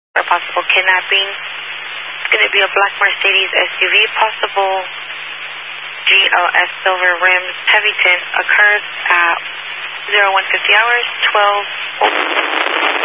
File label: Highway Patrol, Phoenix Metro West Area, scanner; DPS